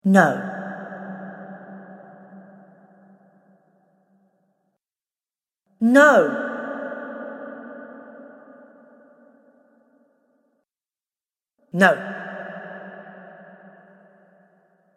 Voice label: "No" Female Voice With Reverb; Recorded Voice Sound Effects